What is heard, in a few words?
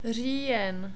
"rijen", Czech